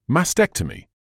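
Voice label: "mastectomy", British English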